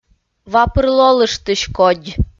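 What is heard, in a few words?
"ва пыр лолыштысь кодь", Komi, female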